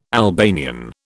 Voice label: "Albanian", British